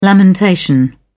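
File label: "lamentation", British English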